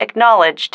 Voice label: acknowledged, female

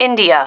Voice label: "india", female

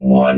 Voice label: "won", robot

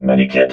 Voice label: "medikit", robot

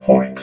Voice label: "point", robot

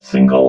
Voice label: "single", robot

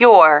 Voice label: "your", female

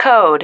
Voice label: "code", female

